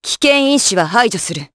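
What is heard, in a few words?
Vox Skill, Japanese; Hilda; kingsraid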